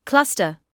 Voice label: "cluster", medical english; ˈklʌs.tə/ KLUSS-tuh